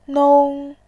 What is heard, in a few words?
"nong1", voice